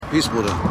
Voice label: Obdachloser; The Wire

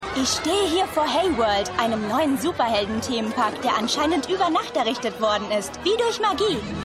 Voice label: Reporterin, Stimmproben

